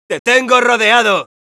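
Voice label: taunts, Spanish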